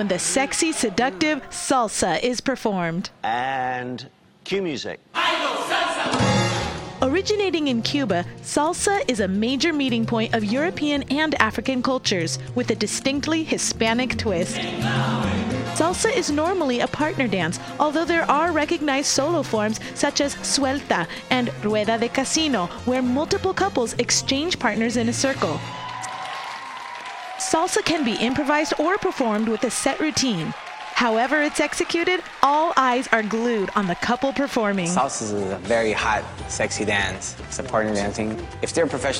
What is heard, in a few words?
Narration